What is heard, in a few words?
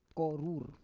"korur", Roon